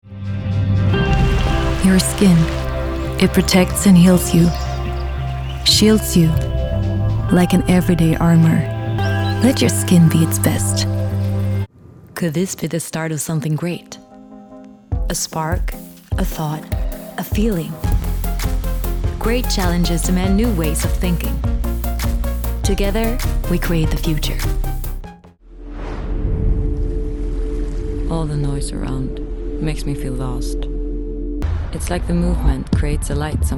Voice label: english voice reel